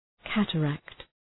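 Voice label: "cataract", English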